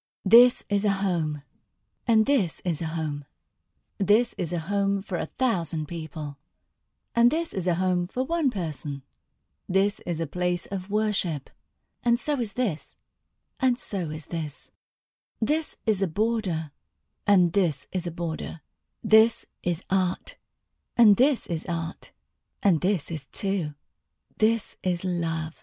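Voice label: English British, female, voice over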